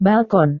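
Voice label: "balkon", Indonesian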